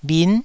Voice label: "bhin9", taiwanese

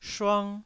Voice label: "shuang1", taiwanese Syllables

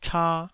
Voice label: "cha2", taiwanese Syllables

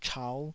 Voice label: "chau5", Taiwanese

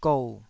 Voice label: "gou3", Taiwanese syllable

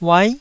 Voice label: "uai9", taiwanese

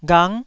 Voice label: "ghang9", Taiwanese

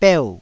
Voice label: "beu4", taiwanese syllables; 台語音節發音檔案

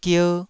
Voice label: "gier2", Taiwanese syllable

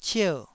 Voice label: "cier4", Taiwanese

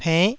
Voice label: "pennh9", Taiwanese

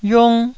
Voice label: "yiung1", taiwanese Syllables

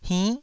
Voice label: "hinn9", taiwanese

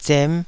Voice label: "zem5", taiwanese syllables

